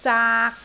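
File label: "zaak3", Cantonese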